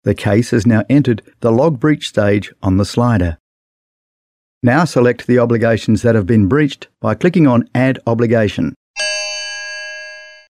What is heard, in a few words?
E-Learning for video Australian